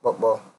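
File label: "popo", Nigerian Pidgin, male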